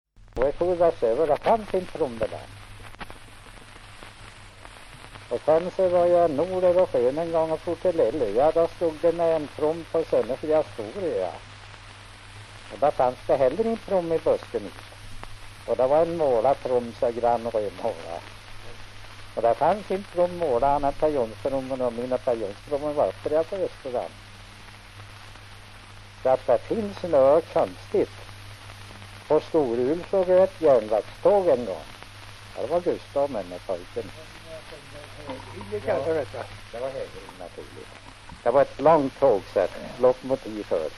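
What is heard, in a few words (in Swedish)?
Sunnemo Värmland, svenska sagor dialekter; Folkminnen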